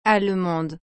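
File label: "allemande", French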